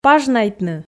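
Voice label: "пажнайтны", Komi, female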